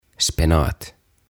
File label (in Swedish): "Spenat" Pinaatti